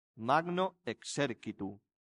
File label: "magno Exercitu", Latin; santillana